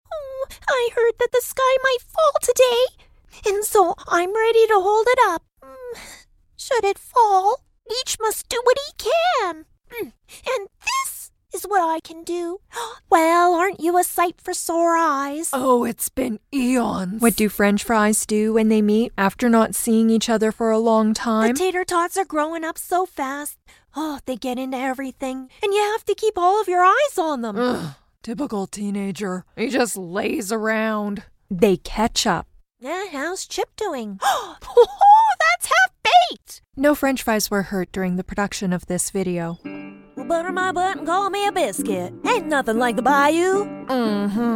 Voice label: animation